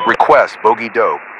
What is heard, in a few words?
Radio player Awacs Bogey Dope